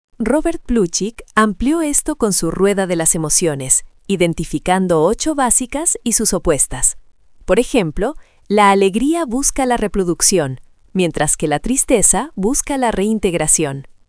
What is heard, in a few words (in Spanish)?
locucion